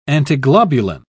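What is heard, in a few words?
"antiglobulin", British English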